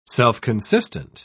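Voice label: "self-consistent", British English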